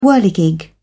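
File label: "whirligig", British English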